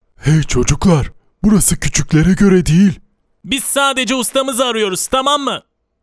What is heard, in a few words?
cartoon character